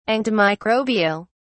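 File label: "antimicrobial", British English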